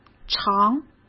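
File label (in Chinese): "尝", Mandarin Chinese; chinese flashcards; hsk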